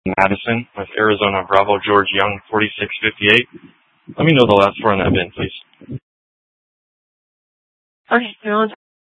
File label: Police Dispatch, scanner; Phoenix Police 400 South Mountain Precinct